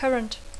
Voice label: "current", English

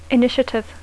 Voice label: "initiative", English

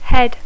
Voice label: "head", English

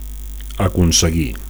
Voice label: "aconseguir", Catalan

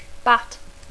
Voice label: "bat", English

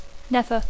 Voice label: "never", English

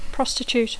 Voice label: "prostitute", English

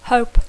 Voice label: "hope", English

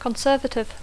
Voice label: "conservative", English

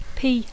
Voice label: "pea", English

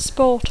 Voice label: "sport", English

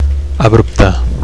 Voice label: "abrupte", Catalan; rugged; bumpy; sudden

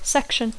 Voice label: "section", English

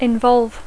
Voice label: "involve", English

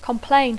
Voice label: "complain", English

